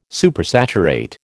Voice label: "Supersaturate", American

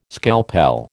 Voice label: "scalpel", American

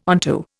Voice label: onto, Indian

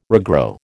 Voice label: "regrow", American

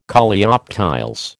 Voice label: "Coleoptiles", American

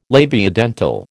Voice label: "labiodental", American

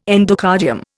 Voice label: "endocardium", Indian

